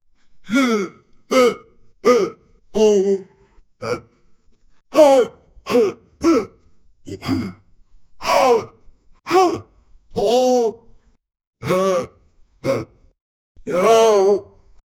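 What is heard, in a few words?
cartoon angry man mumbling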